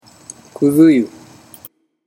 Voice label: "kuzuyu", Japanese